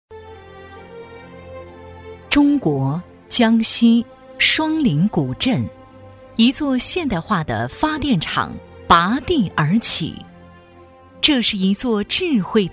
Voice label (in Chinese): 女, 专题解说, 记述大气; 分宜电厂项目; 质感知性; 沉稳大气